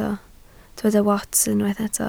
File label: "wats", Welsh